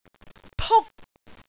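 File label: "puk1", Cantonese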